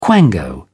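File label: "quango", English, British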